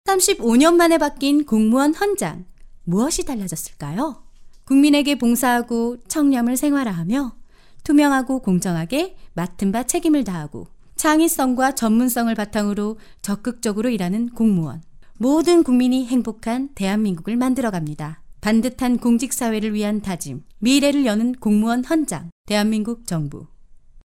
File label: Korean